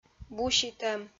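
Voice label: "буситӧм", Komi-Zyrian, female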